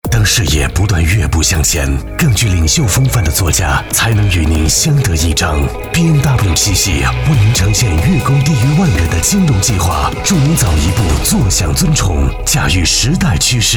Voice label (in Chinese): 男 广告 磁性品质感; BMW宝马